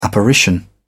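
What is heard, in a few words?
"apparition", British English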